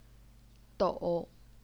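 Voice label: "dou", third tone, Chinese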